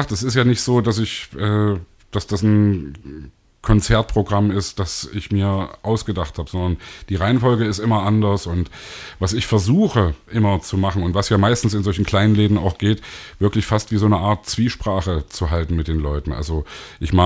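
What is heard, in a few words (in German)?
redet zwischen songs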